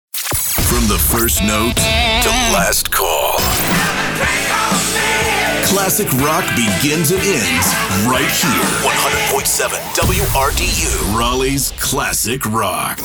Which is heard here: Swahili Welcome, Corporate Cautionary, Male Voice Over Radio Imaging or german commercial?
Male Voice Over Radio Imaging